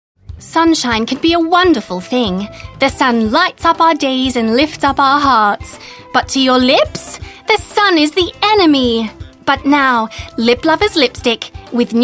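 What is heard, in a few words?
British, TV commercial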